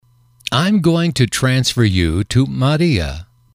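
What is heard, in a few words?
"im going to transfer you to X", English, male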